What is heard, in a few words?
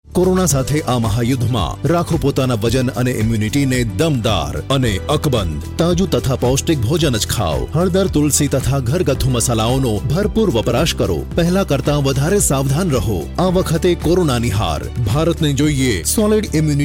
GUJ, voice